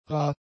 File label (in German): "rar", German; adjectives